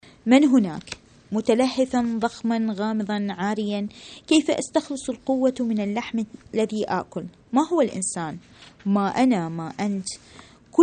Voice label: arabic